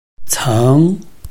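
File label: "ceng", Chinese, tone 2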